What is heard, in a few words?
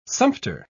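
"sumpter", British English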